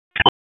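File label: EMS Dispatch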